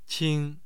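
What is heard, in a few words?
"qing", Mandarin Chinese, tone 1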